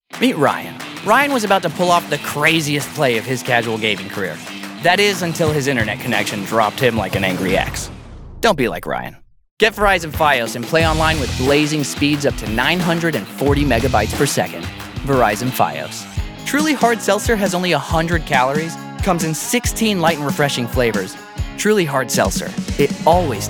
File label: Commercial